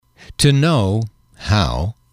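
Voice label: "to know how", English, male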